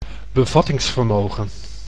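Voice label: "bevattingsvermogen", Dutch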